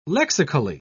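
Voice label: "lexically", British English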